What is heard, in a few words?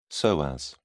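"psoas", British English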